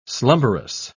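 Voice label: "slumberous", English, British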